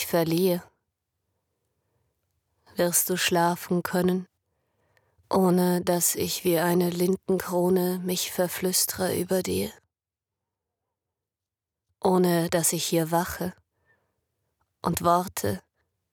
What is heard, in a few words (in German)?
Gedicht, Schlaflied; Rainer Maria Rilke